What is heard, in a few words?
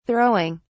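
"throwing", British English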